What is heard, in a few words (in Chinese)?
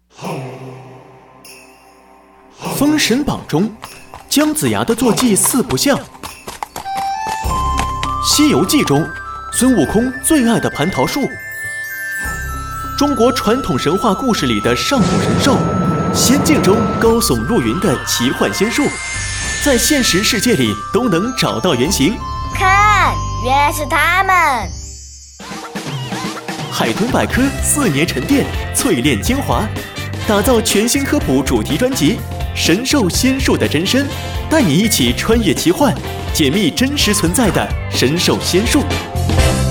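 广告片年轻稳重百科男声; 海豚百科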